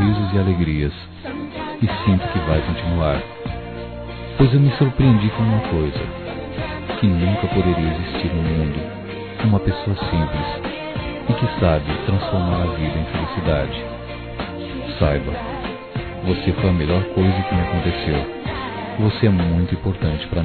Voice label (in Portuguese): Aniversário Amizade Masculino; Telemensagens 2017; Aniversários; Aniversário de Amigos